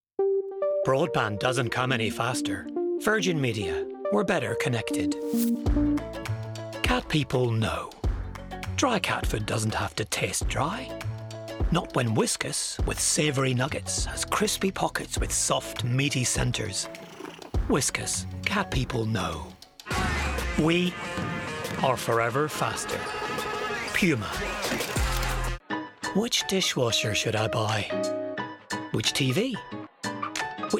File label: male english irish northern irish accent commercial compilation